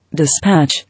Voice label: "Dispatch", English

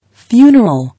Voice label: "Funeral", English

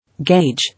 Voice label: "Gauge", English

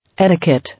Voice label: "Etiquette", English

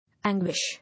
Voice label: "Anguish", English

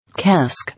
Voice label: "Cask", English

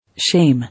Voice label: "Shame", English

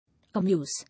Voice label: "Amuse", English

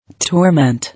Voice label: "Torment", English

